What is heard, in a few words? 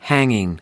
"hanging", US